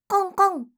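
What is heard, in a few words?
"konkon", Japanese; doubutsu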